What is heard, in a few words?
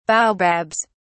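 "baobabs", British English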